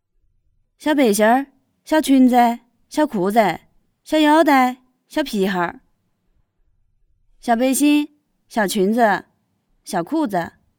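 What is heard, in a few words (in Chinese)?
川普 女 川普 样音 女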